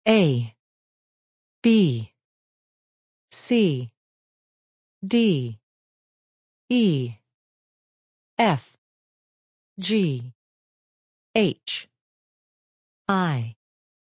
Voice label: Alphabet Female, Female voice, alphabet, A-Zed, speaking; (human voice); Talking; VoiceBox